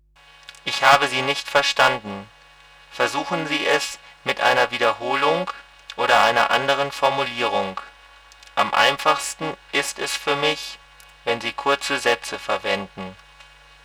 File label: Saw slow; SmartKom; Prototypen Stimme